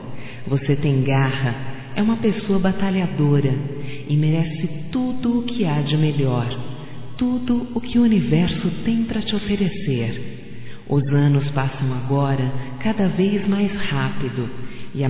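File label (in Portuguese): voz, Aniversário neutro, female, Telemensagens; Telemensagens 2017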